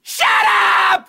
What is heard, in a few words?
LOUD SHUTUP